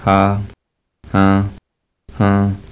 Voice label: palantla chinantec